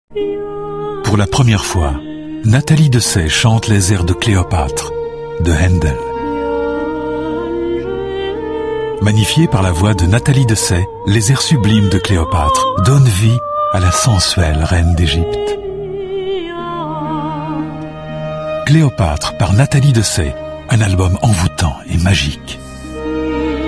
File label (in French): RADIO; RADIO CLASSIQUE; FLORILEGE